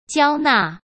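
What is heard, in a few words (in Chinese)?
"交纳", Mandarin Chinese; hsk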